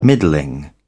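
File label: "middling", British English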